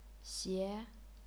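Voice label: "xie", chinese pinyin, second tone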